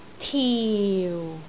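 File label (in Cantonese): "tiu4", Cantonese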